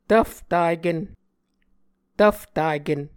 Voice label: "tvftaken", Muscogee

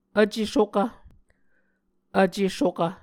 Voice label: "vce-sokv", muscogee

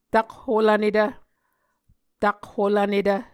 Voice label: "takholvnetv", Muscogee

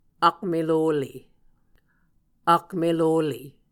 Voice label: "akmelohle", muscogee

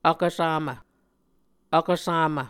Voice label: "vkvsamv", Muscogee

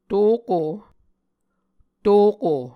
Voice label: "toko", muscogee

